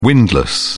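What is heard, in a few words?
"windless", British English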